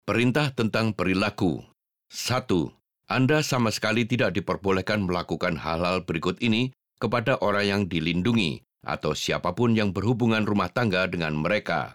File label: indonesian; orders about behaviour